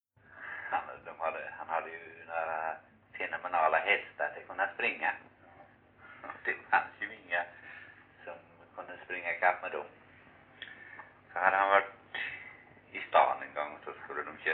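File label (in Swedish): Rolfstorp Halland, dialekter; Folkminnen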